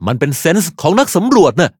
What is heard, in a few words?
levelup, th